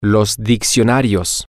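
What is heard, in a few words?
the dictionaries, Spanish, male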